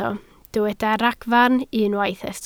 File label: "ragfarn", Welsh